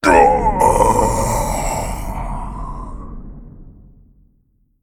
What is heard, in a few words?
Male Elemental Death